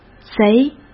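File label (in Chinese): "贼", Mandarin Chinese; hsk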